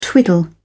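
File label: "twiddle", English, British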